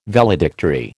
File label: "Valedictory", American